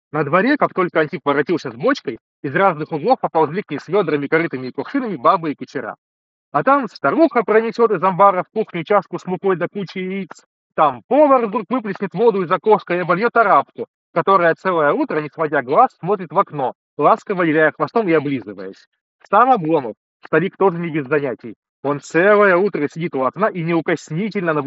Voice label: Mic noise; realme buds air 8 pro